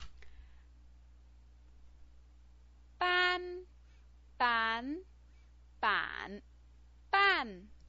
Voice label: "ban", pinyin

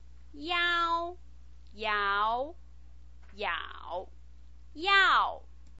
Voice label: "iao", Chinese pinyin

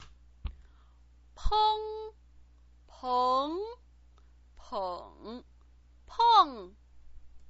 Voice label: "peng", Chinese pinyin

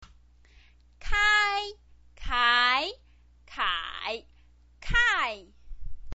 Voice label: "kai", chinese pinyin

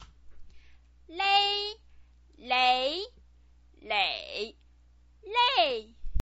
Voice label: "lei", Chinese pinyin

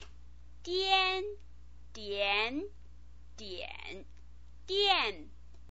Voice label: "dian", Chinese pinyin

